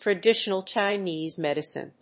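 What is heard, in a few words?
"Traditional Chinese Medicine", English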